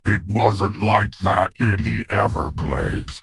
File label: upset; fertig classic games; croc